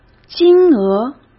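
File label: "金额", Mandarin Chinese; hsk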